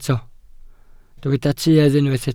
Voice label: "tuedd", Welsh

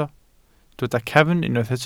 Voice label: "cefn", Welsh

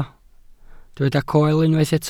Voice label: "coel", welsh

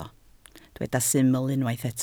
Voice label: "syml", Welsh

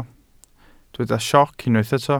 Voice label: "sioc", Welsh

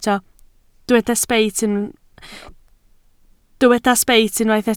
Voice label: "sbeit", Welsh

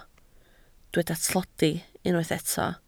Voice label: "tlodi", Welsh

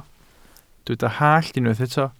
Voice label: "hallt", Welsh

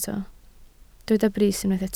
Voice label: "brys", welsh

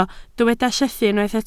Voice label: "llethu", Welsh

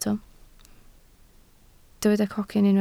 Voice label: "cocyn", Welsh

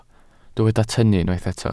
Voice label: "tynnu", Welsh

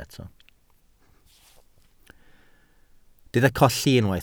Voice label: "colli", Welsh

